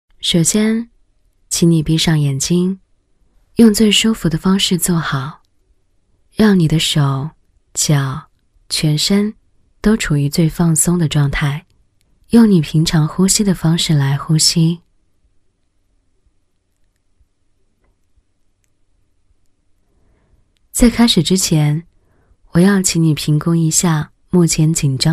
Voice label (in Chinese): 女-冥想【冥想 腹式呼吸 瑜伽】; 年轻知性; 温和抒情